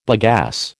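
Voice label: "bagasse", American English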